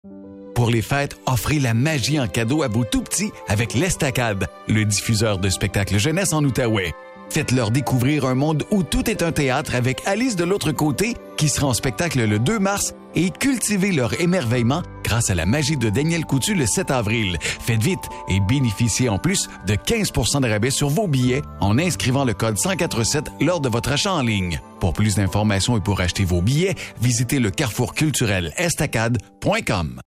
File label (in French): French, Christmas Commercials; Canada; Christmas Commercials 2023; Carrefour culturel ESTacade